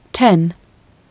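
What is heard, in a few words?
"ten", English, GB, female; tɛn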